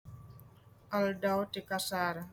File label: Ilocano